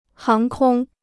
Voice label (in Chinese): "航空", Chinese